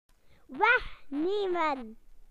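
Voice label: "to take away", British English; PictureDictionary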